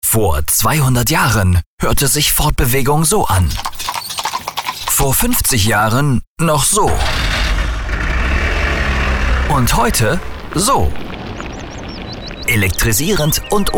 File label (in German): Fremdtake Dynamisch